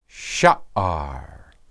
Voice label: "shaar", Hebrew

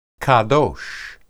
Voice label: "qedosh", Hebrew

